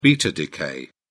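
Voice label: "beta decay", British English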